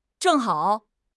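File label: "zheng4 hao3", Mandarin Chinese; HSK4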